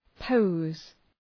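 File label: "pose", English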